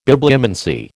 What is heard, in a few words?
"Bibliomancy", American